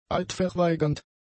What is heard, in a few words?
"eidverweigernd", german; adjectives